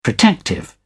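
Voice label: "protective", British English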